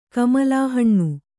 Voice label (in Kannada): "ಕಮಲಾಹಣ್ಣು", Kannada; kamalāhaṇṇu; noun